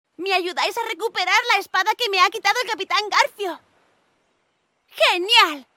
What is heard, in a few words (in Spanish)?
Publi registro infantil, locutora